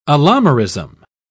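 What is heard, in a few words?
"allomerism", British English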